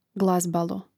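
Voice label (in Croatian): "glazbalo", hrvatski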